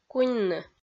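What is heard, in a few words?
"куньны", Komi, female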